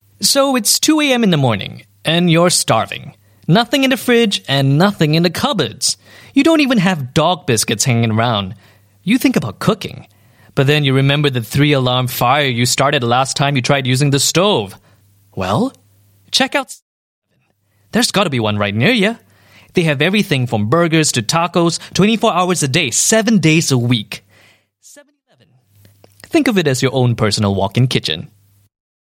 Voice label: Convenience Store Spot Upbeat, English Singaporean Male